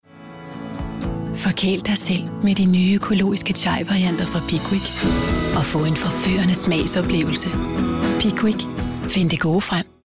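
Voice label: Danish advert soft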